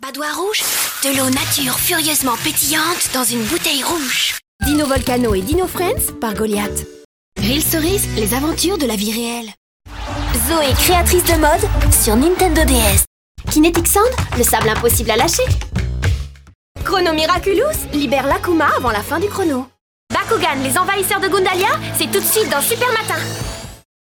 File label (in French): Signature voix jeune enfant